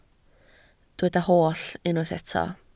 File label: "holl", Welsh